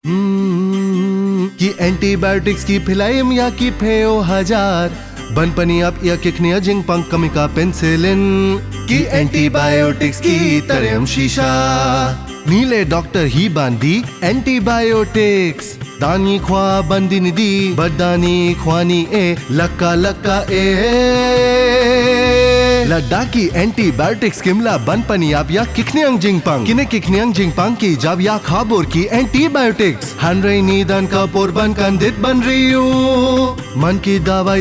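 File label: Antibiotic Jingle Khasi; jingles in 19 languages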